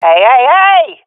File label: takingfire friendly, swat fem; vo; agents; Source Model Packs; Counter Strike Model Pack; CS2